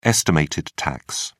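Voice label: "estimated tax", British English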